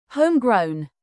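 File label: "homegrown" British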